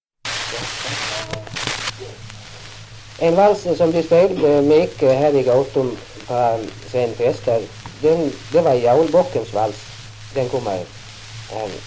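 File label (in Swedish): Gothem Gotland, dialekter; Folkminnen; Svenska sagor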